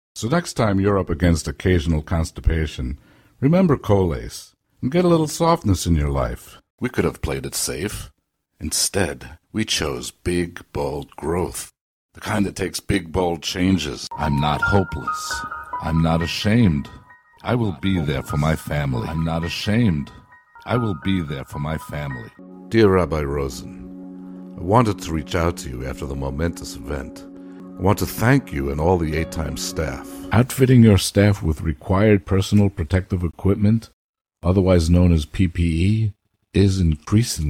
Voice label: commercial